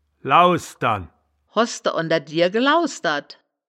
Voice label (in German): "lausdern", wittlicher woerterbuch; das wittlicher woerterbuch; woerterbuch vertonung